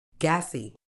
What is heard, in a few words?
"gassy", medical English